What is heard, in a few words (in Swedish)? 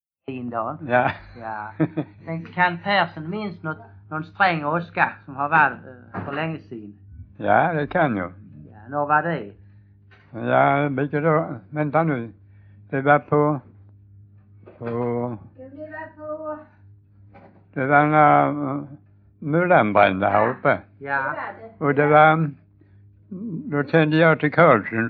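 Stehag Västra Sallerup Skåne, dialekter; Folkminnen; Svenska sagor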